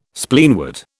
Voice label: "Spleenwort", British